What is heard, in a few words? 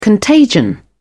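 "contagion", British English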